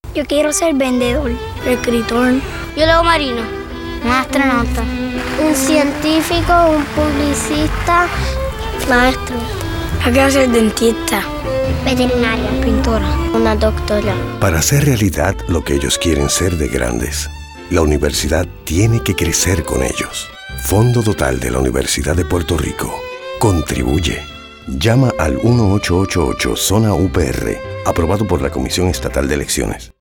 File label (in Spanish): anuncio de radio